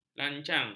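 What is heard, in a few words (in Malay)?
"lancang", Malay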